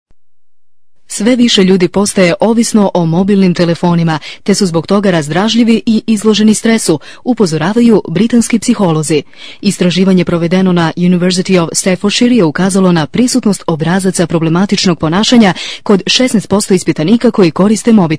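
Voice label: croatian, female voice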